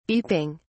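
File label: "beeping", English, British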